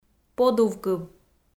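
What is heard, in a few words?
"подувкыв", Komi, female; kpv audio dict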